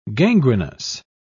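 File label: "gangrenous", English, British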